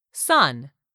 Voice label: "sun", english; 3000 words